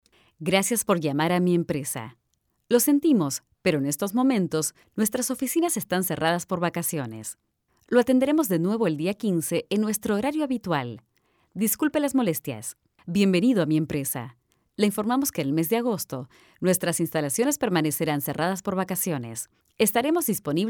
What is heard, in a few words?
spanish latin american ivr, spanish argentinian voice over talent